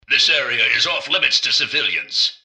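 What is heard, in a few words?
hello, robot; rivetcity; mrgutsy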